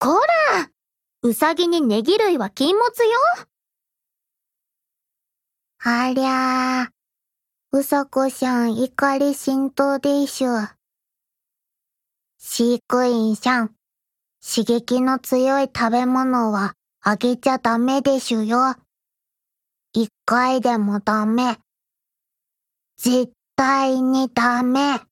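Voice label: voice over DIALOGUE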